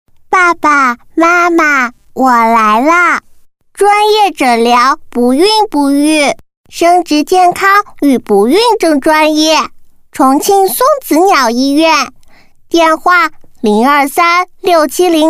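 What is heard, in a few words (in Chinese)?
女 儿童配音【小女童】; 专业诊疗不孕不育; 可爱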